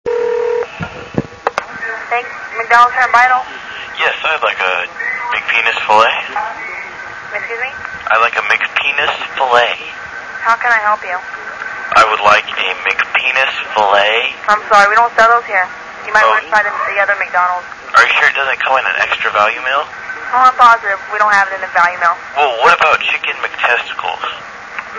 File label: Prank Phone Calls - Prank Call; Mcdonalds Prank Call